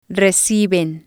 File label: "reciben", spanish